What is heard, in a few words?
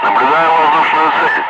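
Russian speech, Ka-50 Wingman; Messages tally bandit; DCS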